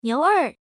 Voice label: female